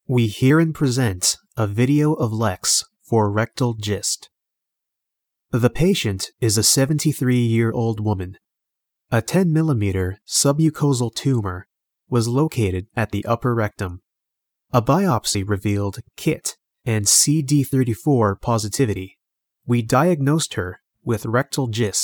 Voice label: medical, narrators voice sample